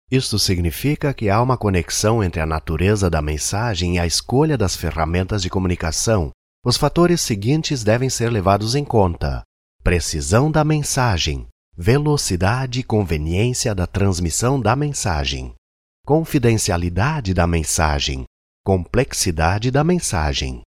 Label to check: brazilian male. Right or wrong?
Right